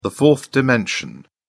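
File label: "fourth dimension", English, British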